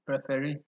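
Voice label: "referee", Nigerian Pidgin, male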